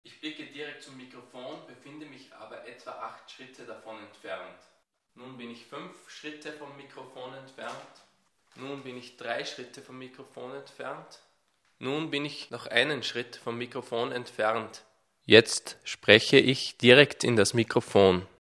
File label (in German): C1000s hyperniere entfernung